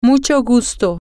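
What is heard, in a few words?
nice to meet you, spanish, female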